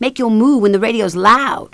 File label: female radio loud; kingpin; beth specific